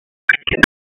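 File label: Raleigh Police Special Operations Tactical, p25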